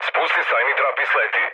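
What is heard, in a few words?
Serbian bailout mood low, speech; War Thunder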